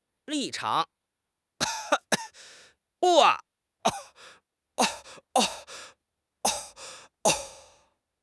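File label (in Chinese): "立场", Chinese; HSK6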